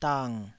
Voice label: "dang3", Taiwanese